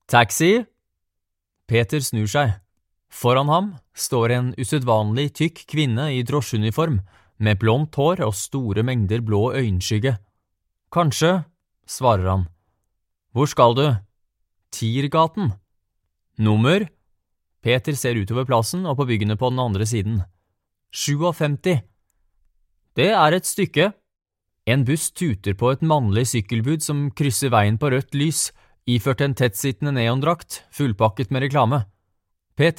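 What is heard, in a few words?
Norwegian Audiobook Male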